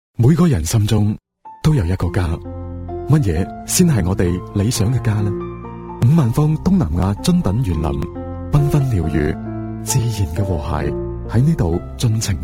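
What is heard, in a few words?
chinese cantonese male commercial; voice demos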